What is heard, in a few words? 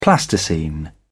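"plasticine", British English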